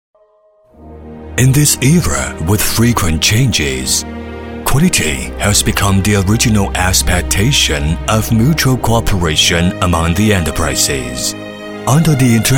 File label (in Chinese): 英男 专题 舒缓低沉